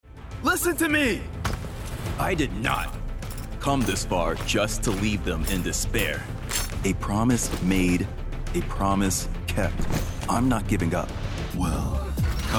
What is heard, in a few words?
Character